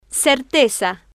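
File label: "certeza", Spanish, female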